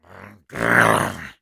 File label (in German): negative vocalization; Sniper